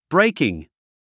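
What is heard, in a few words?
"breaking", English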